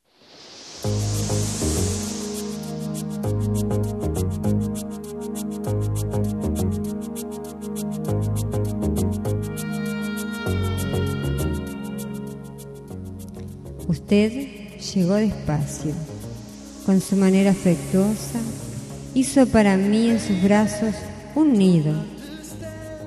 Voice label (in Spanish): Yo te Quero Mucho Feminino, Espanhol; Variadas em Espanhol